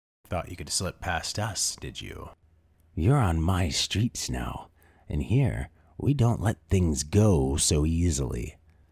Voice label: Mercenary outlaw with dangerous cunning, authoritative menace, and criminal leadership presence, Audiobook Villain; Bandit Leader